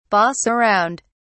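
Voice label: "boss around", British English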